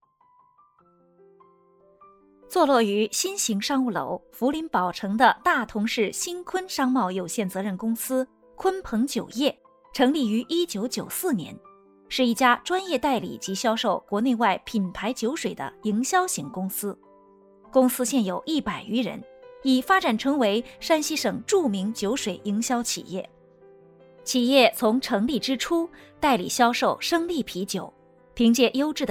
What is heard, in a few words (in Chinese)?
企业宣传; 鲲鹏酒业